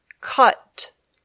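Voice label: "cut", English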